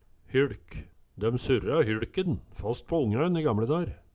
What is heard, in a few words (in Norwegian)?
hyLk, Numedalsmål